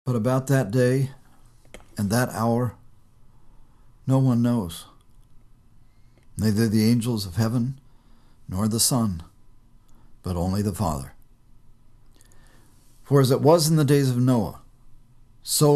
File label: storytelling